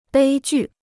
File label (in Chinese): "悲剧", Chinese